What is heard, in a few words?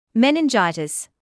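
"meningitis", British English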